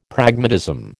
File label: "Pragmatism", American